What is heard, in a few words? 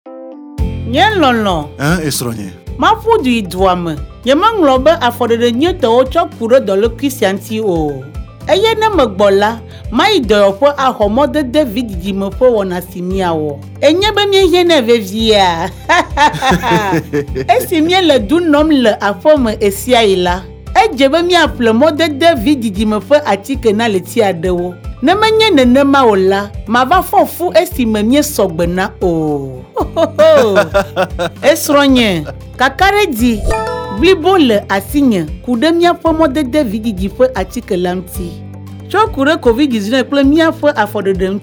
Spot, Ewe